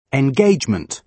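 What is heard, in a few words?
"engagement", British English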